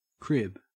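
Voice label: "crib", Australian English; pronunciations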